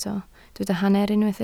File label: "hanner", Welsh